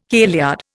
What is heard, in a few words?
"kailyard", Indian